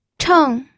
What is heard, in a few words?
"cheng4", pinyin